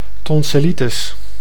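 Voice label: "tonsillitis", Dutch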